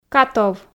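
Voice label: "катов", Komi, female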